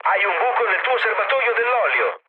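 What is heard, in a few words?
Italian damaged oil mood low, speech; War Thunder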